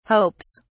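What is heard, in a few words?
"hopes", British English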